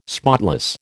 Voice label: "spotless", American